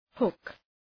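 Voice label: "hook", English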